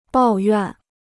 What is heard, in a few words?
"抱怨", Chinese